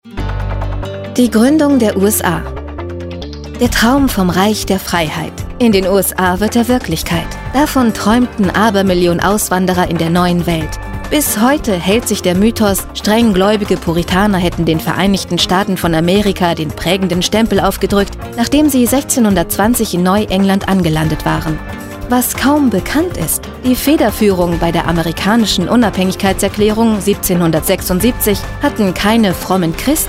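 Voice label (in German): Doku, deutsche Synchronstimme; Stimme von Anne Hathaway, Kirsten Dunst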